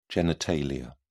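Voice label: "genitalia", British English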